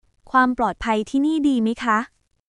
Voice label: Thai, basic thai phrases